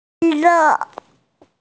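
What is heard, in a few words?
"le4", Mandarin Chinese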